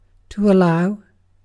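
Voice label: "allow", British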